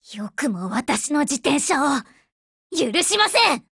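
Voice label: BREAK Japanese, Voice; GF Alarm; BrowningHP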